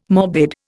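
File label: "morbid", Indian English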